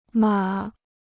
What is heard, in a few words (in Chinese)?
"码", Chinese